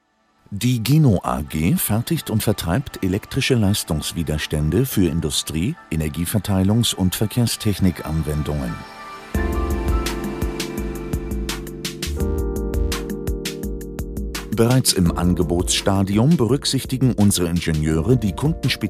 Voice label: Corporate Videos Entreprise, German voice-over, Composed and Descriptive; Gino AG